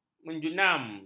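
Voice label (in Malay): "menjunam", Malay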